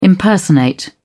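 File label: "impersonate", British English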